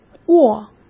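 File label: "卧", Mandarin Chinese; chinese flashcards; hsk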